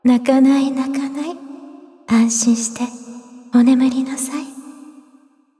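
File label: victory, Japanese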